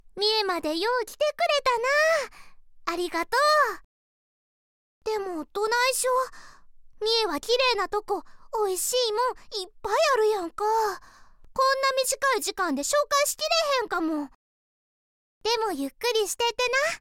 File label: female